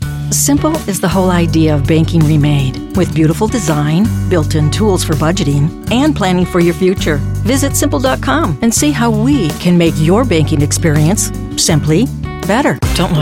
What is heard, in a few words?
USA